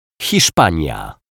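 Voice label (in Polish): "Hiszpania", Polish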